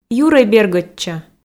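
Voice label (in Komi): юрӧй бергодчӧ, Komi, female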